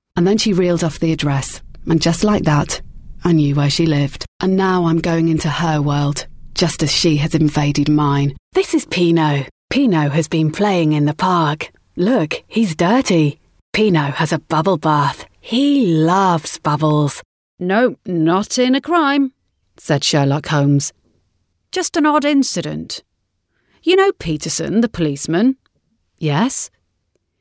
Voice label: audiobook

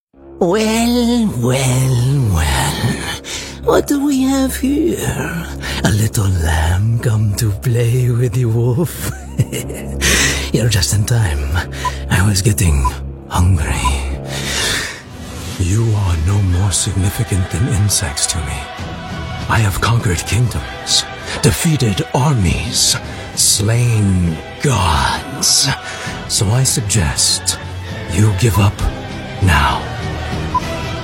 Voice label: american voice character

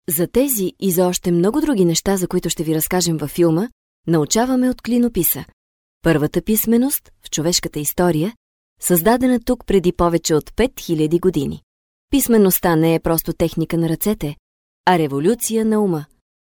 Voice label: bulgarian female corporate

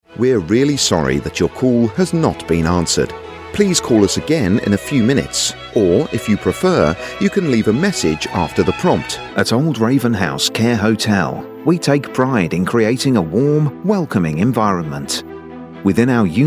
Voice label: on hold telephone

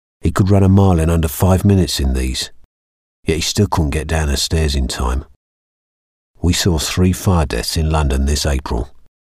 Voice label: londoner

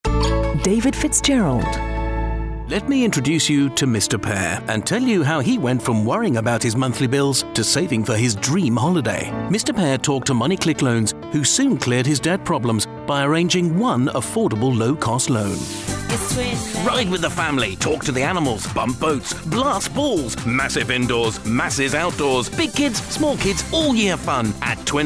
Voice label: commercial

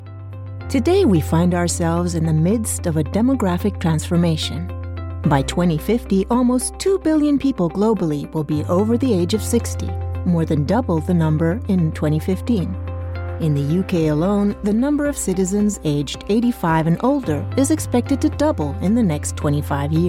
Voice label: english informative

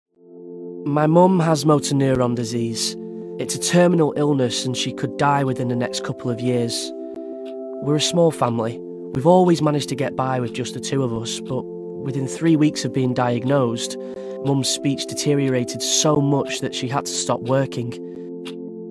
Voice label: natural acting charity read

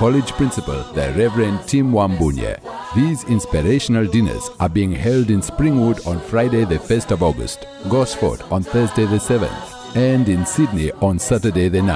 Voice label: kenya spot

